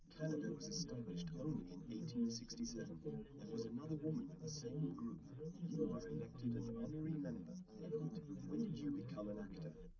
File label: male liverec; SiSEC10; underdetermined